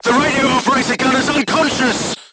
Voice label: voice message machine gunner contused, crew tanks portuguese